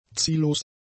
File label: "ziellos", German; adjectives